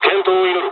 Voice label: dialogs japanese; War Thunder

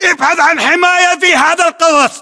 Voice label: order cover crater, battle chatter, english

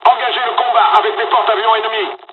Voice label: bombing, dialogs french; War Thunder

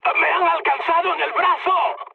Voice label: damaged pilot, mood med, speech; War Thunder

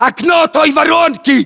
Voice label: landmark near crater, ru; localized english

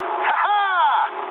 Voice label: trick, speech ru

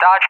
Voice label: "Dodge", ENG, AWACS; Callsign; DCS

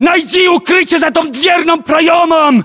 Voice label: order cover doorway, ru; localized english

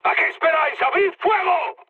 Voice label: attack position, mood high, speech; War Thunder

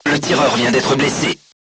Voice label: voice message gunlayer wounded, crew tanks french

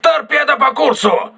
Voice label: voice message torpedo, crew ships russian

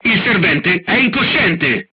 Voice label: charger contused, crew tanks italian; War Thunder

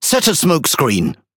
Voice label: Pirate Speech Quick Commands Need Smoke; World Of Warships

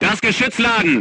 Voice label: voice message load, crew tanks german

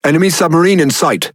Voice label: Speech Detection Enemy Sub, EN GB; World Of Warships

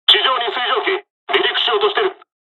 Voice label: dialog, dialogs japanese; War Thunder

